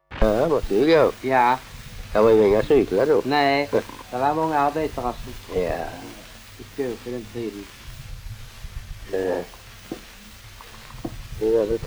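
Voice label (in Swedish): Allerum Skåne, Svenska sagor dialekter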